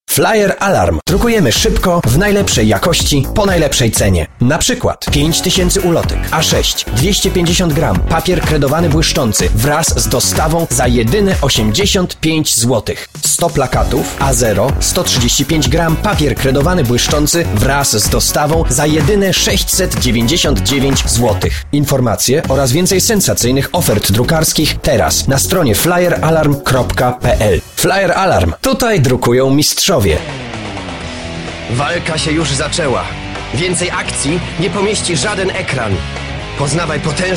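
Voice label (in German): Polen, männlich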